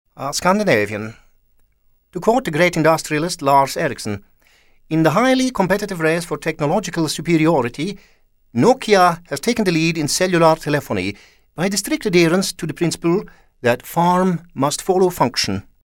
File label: SCANDINAVIAN ALL PURPOSE